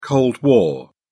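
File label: "cold war", British English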